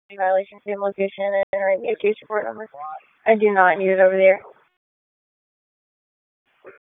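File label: Police District Dispatch; Raleigh; Northeast; p25; wake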